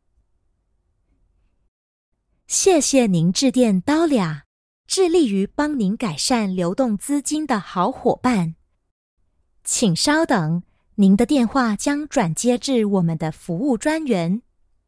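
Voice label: IVR, Chinese; GoLocalise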